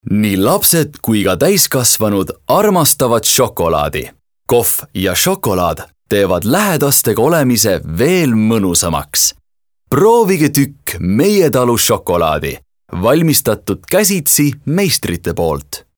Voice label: EST male, Sweet

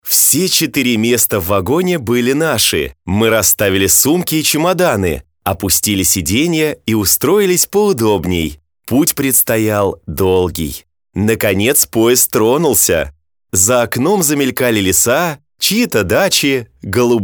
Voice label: RUS male, Casual

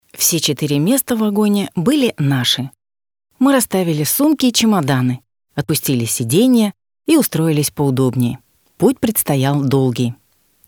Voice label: RUS female, Casual

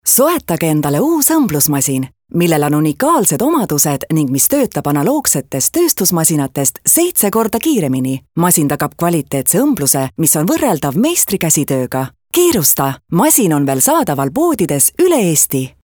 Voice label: EST female Active

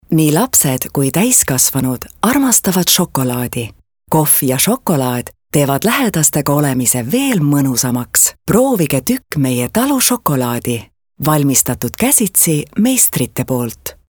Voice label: EST female, Sweet

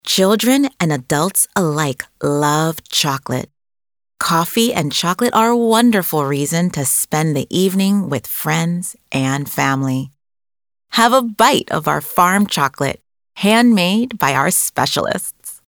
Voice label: ENG female Sweet

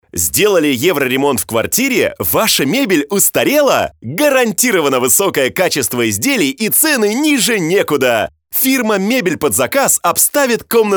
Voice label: RUS male, Active

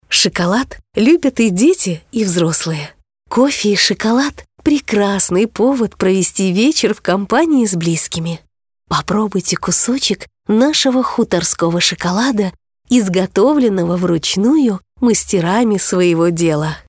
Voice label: RUS female Sweet

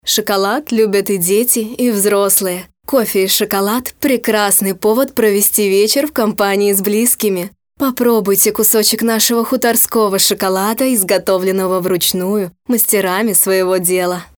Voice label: RUS female Sweet

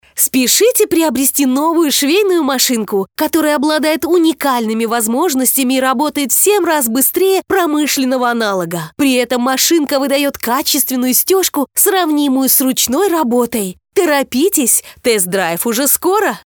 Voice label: RUS female Active

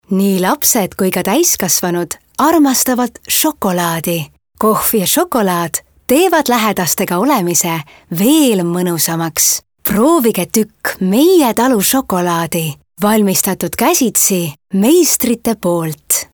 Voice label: EST female, Sweet

